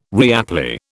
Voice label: "reapply", British